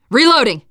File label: reloading, teen girl; player; survivor; voice